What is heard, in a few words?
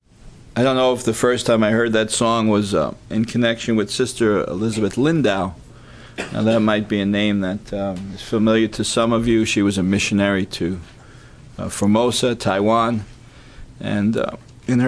Message- God is speaking